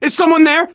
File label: radio initial broadcast; Left 4 Dead 2; Source Engine; video games; npc; churchguy